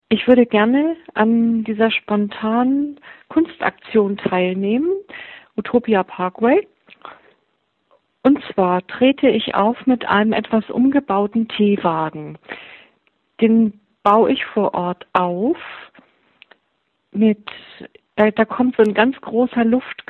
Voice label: Voicemail